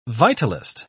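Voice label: "vitalist", English, British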